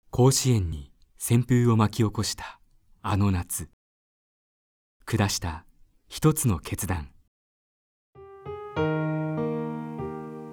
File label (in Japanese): スポーツドキュメント（ささやき声）